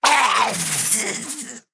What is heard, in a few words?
Male Sethrak Death